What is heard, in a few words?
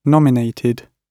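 "nominated", British English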